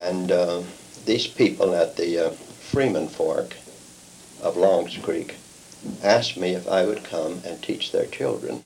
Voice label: Oral history with 71 year old male Kentucky, american english dialect